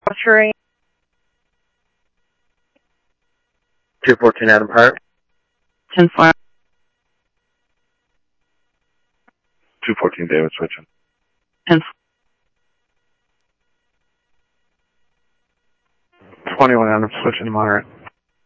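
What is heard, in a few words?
police department, scanner audio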